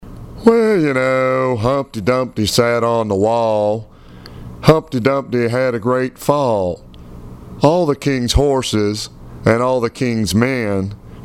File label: Impression Humpty Dumpty